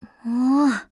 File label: LOW MOOD JP